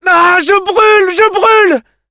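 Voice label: Scout auto on fire, French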